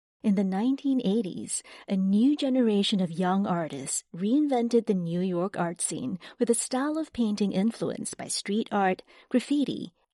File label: Museum Audio Guide Neutral English, Bright, Engaging